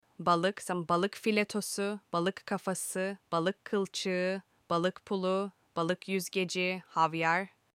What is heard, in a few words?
fish in turkish